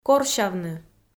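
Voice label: "корсявны", Komi, female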